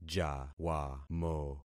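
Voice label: "jawamo", concatenated syllables; Affixing experiment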